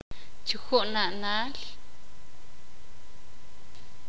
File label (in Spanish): "cada uno", Sakapulteko